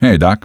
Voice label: hey doc, male; Half-Life 2